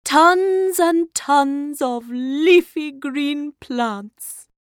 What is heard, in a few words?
Cartoon voice